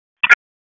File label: Raleigh Police Northeast District Tac, p25